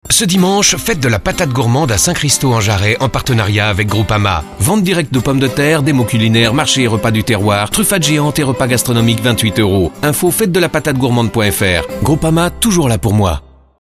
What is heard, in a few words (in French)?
radio pub spot